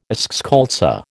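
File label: "eschscholtzia", American English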